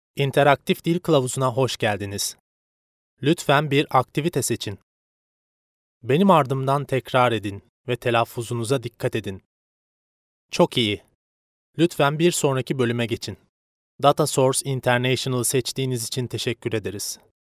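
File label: Turkish male corporate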